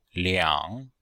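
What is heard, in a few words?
"liang3", Chinese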